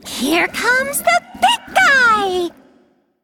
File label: Female Brew Elemental Recruit Large Minion